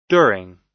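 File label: "during", American English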